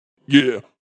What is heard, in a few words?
Vocal Deep Yeah, Funk vocal loop sample.; 110bpm